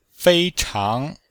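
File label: "fei1chang2", chinese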